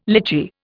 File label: "litchi", Indian